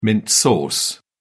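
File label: "mint sauce", British English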